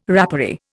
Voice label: "rapparee", Indian